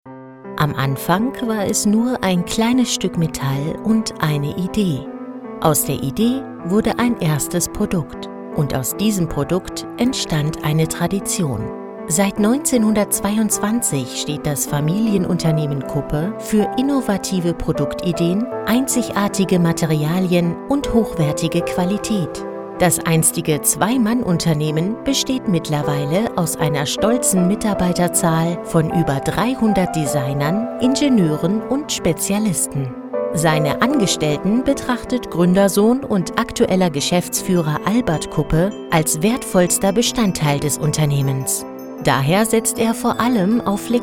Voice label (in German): Imagefilm